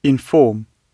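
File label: "inform", British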